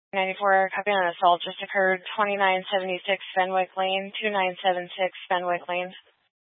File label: Police Department Dispatch, scanner